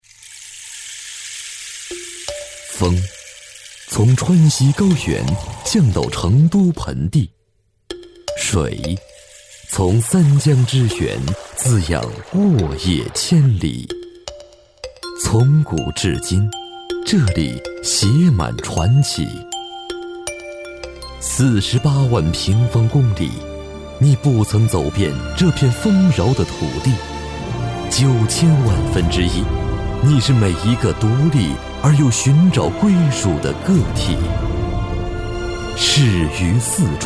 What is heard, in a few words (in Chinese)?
男 片花 电台片花